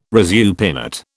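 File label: "resupinate", British English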